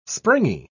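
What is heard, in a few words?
"springy", English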